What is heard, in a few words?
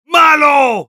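negative vocalization, Spanish; Heavy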